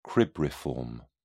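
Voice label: "cribriform", British English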